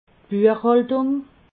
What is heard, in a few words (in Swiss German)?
"buechhandlung", alsace